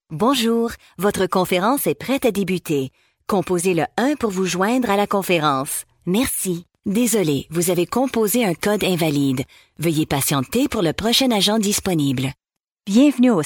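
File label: French Canada, female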